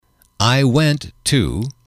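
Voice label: "I went to", English, male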